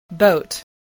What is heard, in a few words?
"boat", spelling